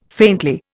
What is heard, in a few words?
"faintly", Indian